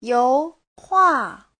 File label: "you2 hua4", Chinese; 油画; tranh sơn dầu